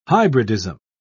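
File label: "hybridism", British English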